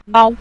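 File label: "lao", Mandarin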